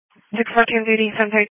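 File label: Police Patrol (Dispatch)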